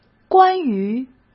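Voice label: "关于", Mandarin Chinese; chinese flashcards; hsk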